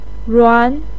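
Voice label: "ruan", Mandarin pinyin, tone 2; 撋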